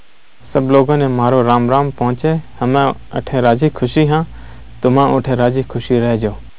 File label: rajasthani; Golden Record